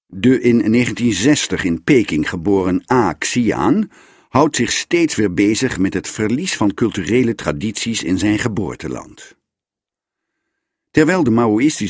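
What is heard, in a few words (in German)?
Dutch, Fremdtake Neutral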